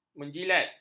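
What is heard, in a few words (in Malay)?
"menjilat", Malay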